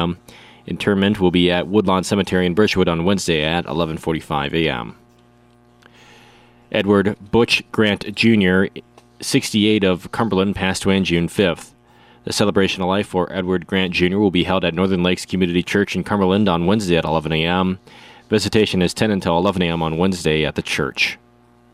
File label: Funeral Announcements